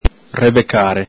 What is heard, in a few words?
"rebecare", Venetian